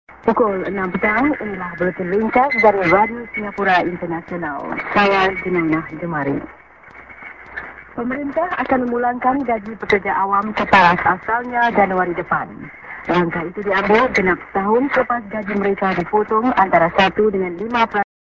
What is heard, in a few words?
Int. St. ->ID(women)->